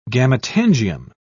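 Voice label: "gametangium", English, British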